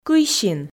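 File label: "кыйсин", Komi, female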